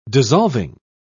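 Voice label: "dissolving", British English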